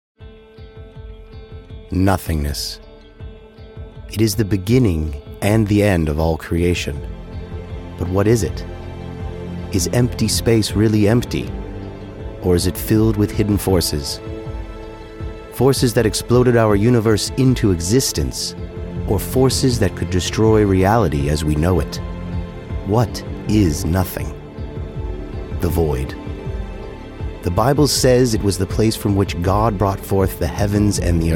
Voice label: documentary wormhole

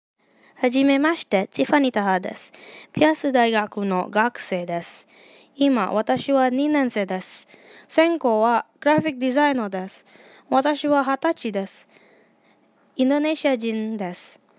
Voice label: Japanese speaking test

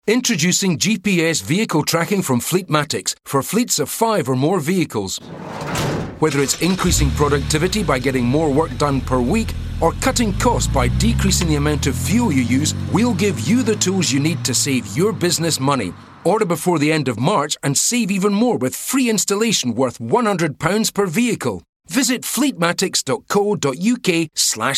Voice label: radio advertising; Fleetmatics

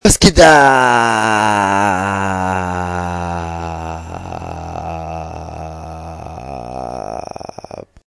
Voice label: whazzzz upppppp german

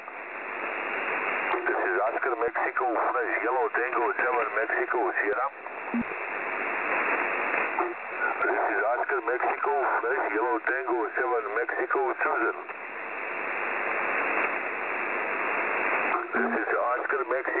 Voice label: calling CQ DX on 7.156 MHz

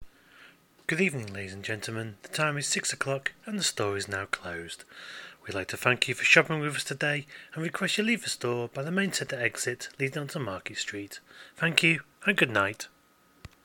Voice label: closing announced

